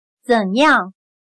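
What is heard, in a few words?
"怎样", Chinese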